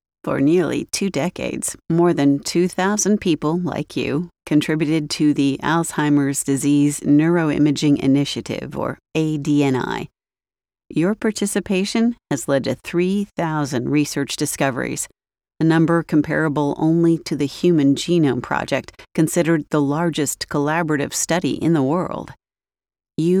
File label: Character voice Sr Woman Natural Slower